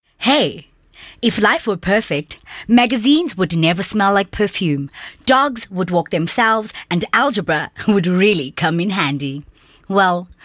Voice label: English Bright

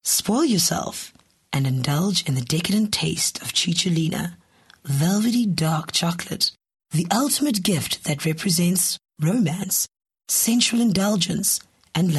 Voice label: English Sexy

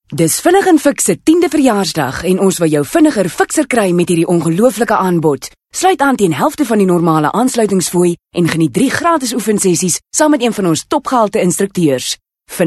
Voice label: Afrikaans Hard Sell

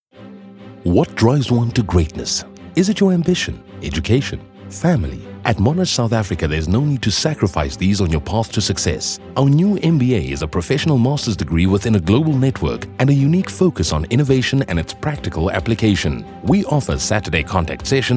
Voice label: English Corporate

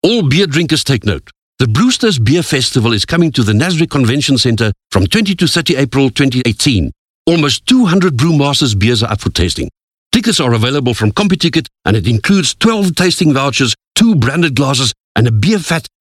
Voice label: English Hard Sell

